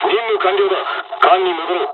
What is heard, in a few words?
dialog, dialogs japanese; War Thunder